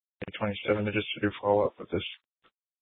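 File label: police dispatch, scanner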